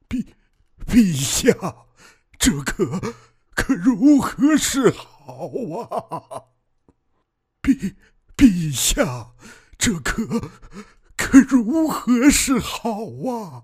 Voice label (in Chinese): 老年音